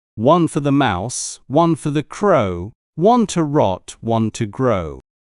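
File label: One for the Mouse, male British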